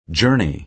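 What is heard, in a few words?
"journey", American English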